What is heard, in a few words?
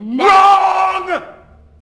wroooong, taunts